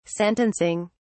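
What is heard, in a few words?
"sentencing", British English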